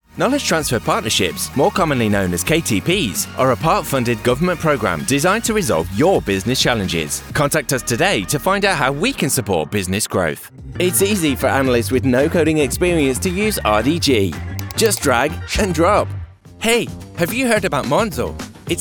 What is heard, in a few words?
Commercial